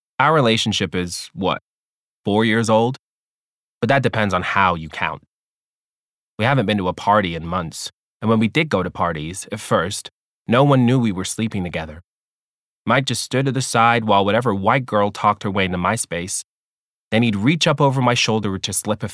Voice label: Audiobook; Memorial